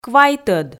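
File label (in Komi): "квайтӧд", Komi, female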